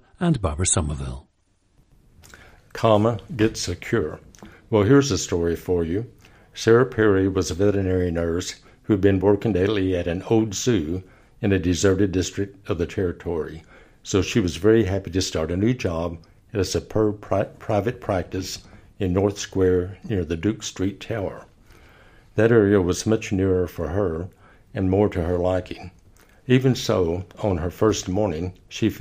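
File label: arkansas, International Dialects of English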